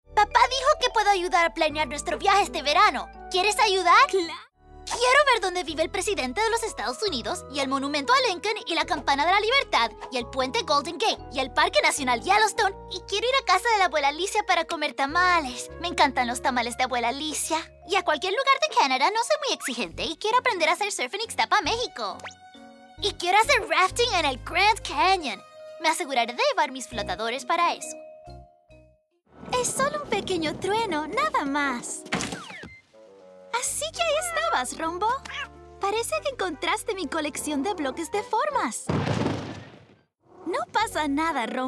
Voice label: Animation Boys and Girls Spanish, female voices